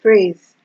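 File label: "praise", Nigerian Pidgin, female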